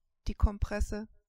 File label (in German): "kompresse", german